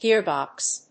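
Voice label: "gearbox", English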